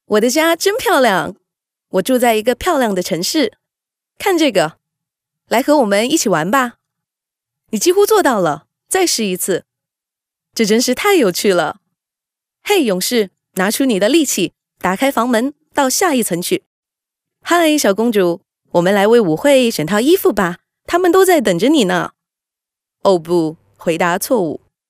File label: Toys Chinese Mandarin Female